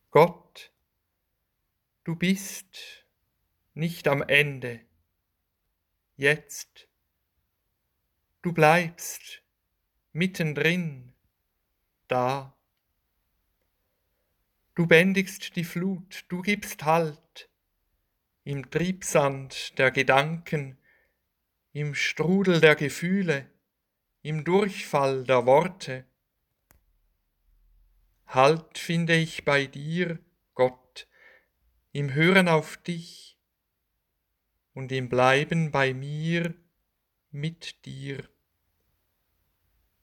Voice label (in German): Rezitation